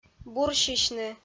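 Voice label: "бурсьысьны", Komi, female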